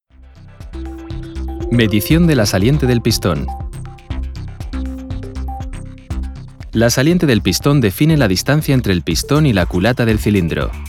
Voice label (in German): spanisch male e-learning motorservice wartungs- und reparaturanle; reparaturanleitung